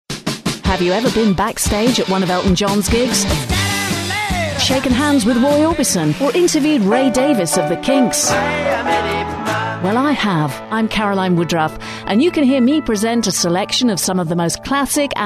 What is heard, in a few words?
promo trails